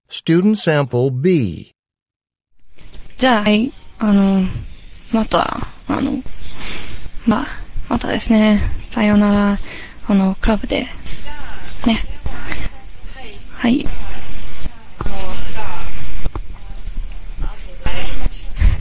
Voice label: japanese return telephone call

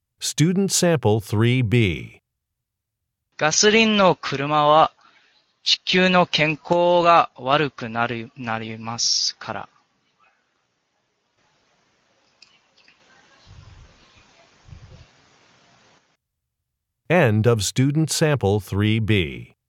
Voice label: japanese conversation

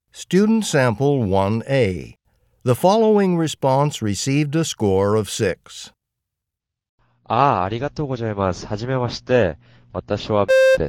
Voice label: japanese conversation

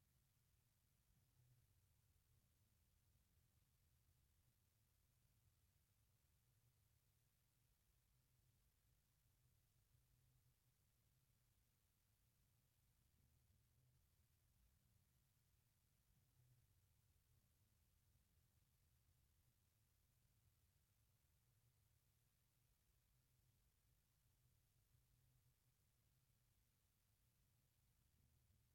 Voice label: french directions part b

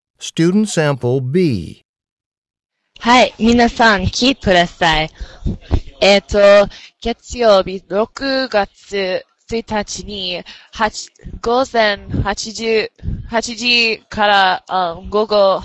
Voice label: japanese school announcement

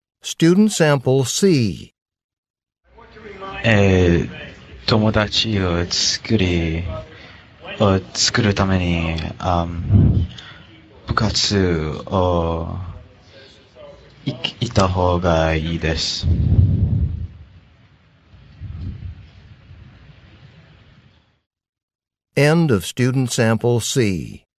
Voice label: japanese conversation

